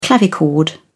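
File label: "clavichord", English, uk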